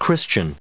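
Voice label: "christians", US English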